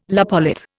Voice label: "lopolith", Indian